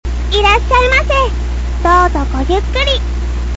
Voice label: sample normal, voice